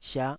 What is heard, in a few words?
"siah5", taiwanese Syllables